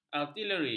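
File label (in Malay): "artileri", Malay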